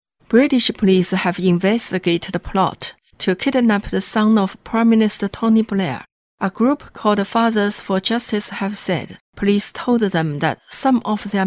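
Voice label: Chinese Accented English; GoLocalise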